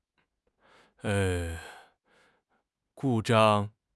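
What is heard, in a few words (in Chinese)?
"故障", Chinese; HSK6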